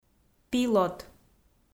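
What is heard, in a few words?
"пилот", Komi, female; audio dict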